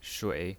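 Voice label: "shui", Mandarin Chinese pinyin, third tone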